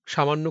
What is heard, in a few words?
Male